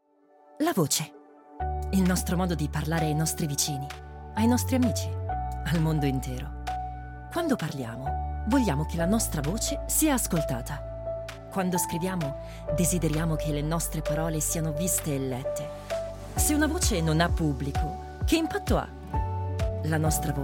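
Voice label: Italian, Storytelling; GoLocalise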